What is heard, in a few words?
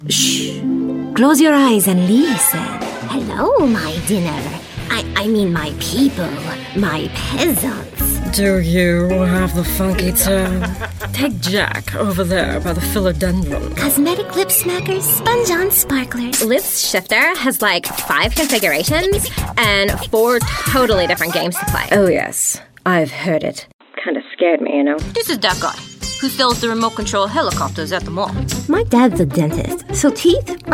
Character